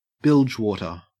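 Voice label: "bilgewater", Australian English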